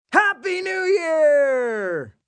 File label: New Year M, male; Occasion, event; Holiday